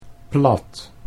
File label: "plat", Dutch